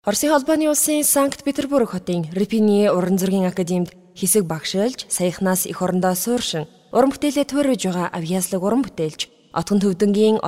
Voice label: Dubbing